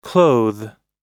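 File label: "clothe", American English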